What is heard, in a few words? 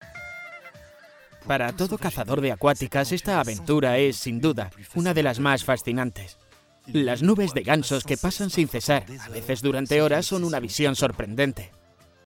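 European Spanish, Narrative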